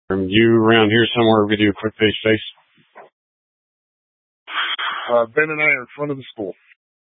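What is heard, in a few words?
Incident Tac 3, Scanner audio recording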